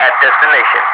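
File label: "at destination", English speech, Wingman; DCS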